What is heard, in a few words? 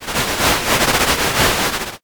whisper